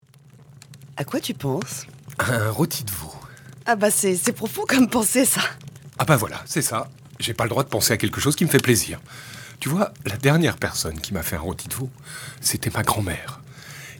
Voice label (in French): Voix Française; Le Couple hiver